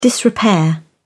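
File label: "disrepair", British English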